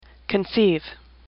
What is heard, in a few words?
"conceive", English; AWL